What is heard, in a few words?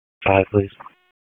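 Police Northeast District Disp, radio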